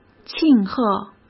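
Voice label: "庆贺", Mandarin Chinese; chinese-flashcards; hsk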